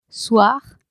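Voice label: "soir", French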